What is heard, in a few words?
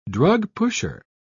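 "drugpusher", British English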